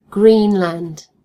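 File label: "greenland", British English; gcompris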